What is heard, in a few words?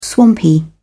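"swampy", British English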